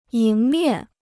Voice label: "迎面", Chinese